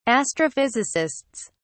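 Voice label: "astrophysicists", British English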